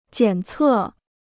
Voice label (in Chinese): "检测", Chinese